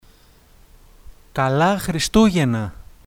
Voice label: christmas greek